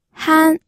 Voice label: "han1", pinyin audio